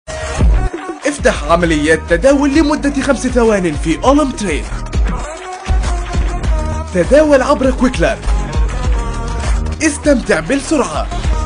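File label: Arabic, male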